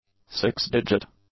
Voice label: "six-digit", English; adjectives